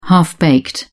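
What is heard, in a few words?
"half-baked", British English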